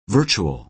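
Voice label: "virtual", American English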